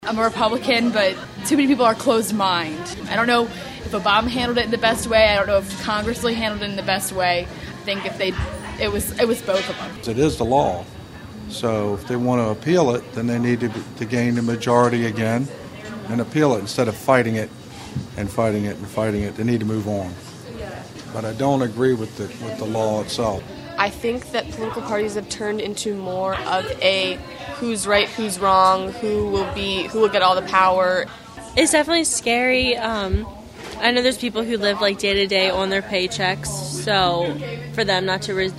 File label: shutdown vox pop